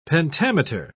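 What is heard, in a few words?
"pentameter", English, British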